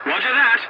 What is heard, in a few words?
voice message yes, english; War Thunder